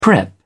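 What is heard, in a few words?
"prep", British English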